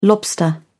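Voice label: "lobster", English, British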